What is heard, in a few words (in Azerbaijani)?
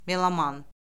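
"meloman", Azerbaijani; Orfoqrafiya Lüğəti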